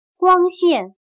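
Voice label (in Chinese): "光线" Mandarin Chinese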